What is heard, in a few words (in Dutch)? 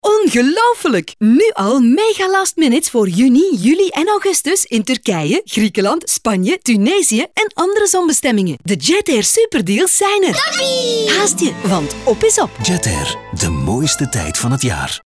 dynamisch; Jetair Superdeals